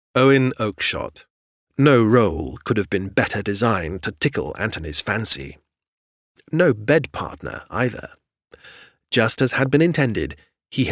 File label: Drama Narration, voiceover